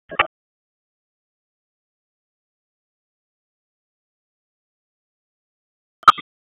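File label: Police Southwest District Tac, radio; Raleigh; p25; wake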